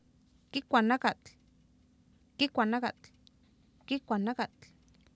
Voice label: kikwah nakatl, Oapan, female; Oapan minimal pairs 1998